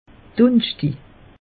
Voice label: "dunschtig", alsace; meteo

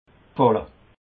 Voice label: "falle", alsace

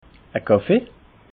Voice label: "kaffee", alsace

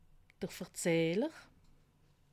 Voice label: "verzehler", alsace

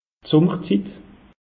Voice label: "summerzit", alsace; meteo

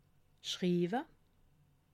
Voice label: "schriwe", alsace

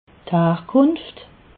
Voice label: "harkunft", alsace

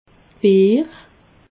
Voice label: "beer", alsace

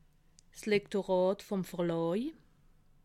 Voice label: "lektorat", alsace

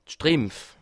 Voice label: "strimpf", alsace

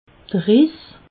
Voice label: "riss", alsace

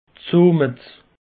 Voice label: "somet", alsace; peche

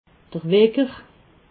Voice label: "wecker", alsace

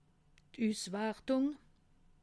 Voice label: "uswartung", alsace

